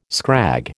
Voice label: "scrag", American